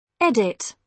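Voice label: "edit", British English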